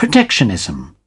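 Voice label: "protectionism", British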